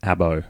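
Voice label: "abo" Australian English; pronunciation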